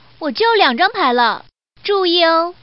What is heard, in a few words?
Woman